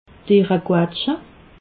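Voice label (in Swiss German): "deere quatschle", Alsatian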